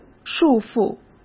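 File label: "束缚", Mandarin Chinese; chinese flashcards; hsk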